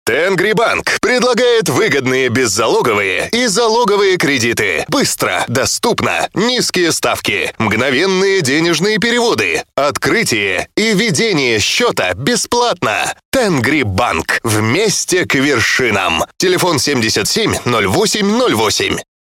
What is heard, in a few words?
fast